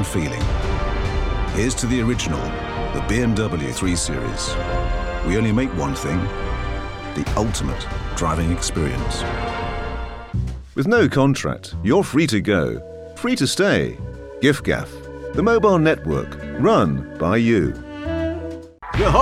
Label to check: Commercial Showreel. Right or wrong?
Right